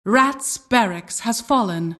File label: announcer barracks fall